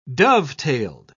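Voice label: "dovetailed", British English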